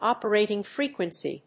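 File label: "operating frequency", English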